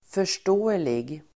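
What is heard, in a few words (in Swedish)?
"förståelig", Swedish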